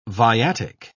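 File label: "viatic", British English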